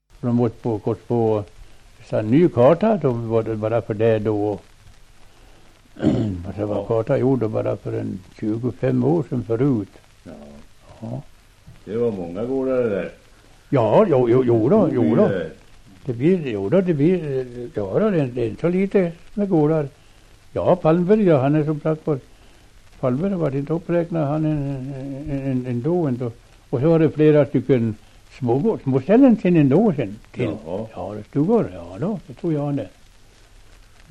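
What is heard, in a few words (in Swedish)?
Västerfärnebo Västmanland, dialekter; Folkminnen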